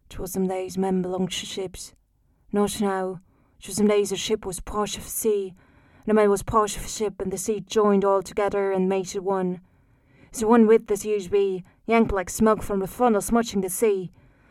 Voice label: Irish Countryside Sample; Seawoman